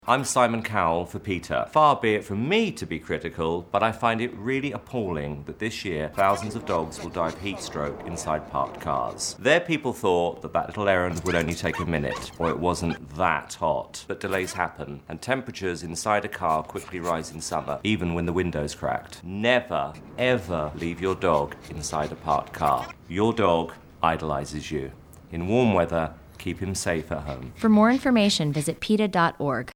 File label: radio; simon cowell